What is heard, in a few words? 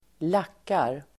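"lackar", Swedish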